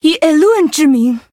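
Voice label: Female, Chinese; NightElf; Attack; zh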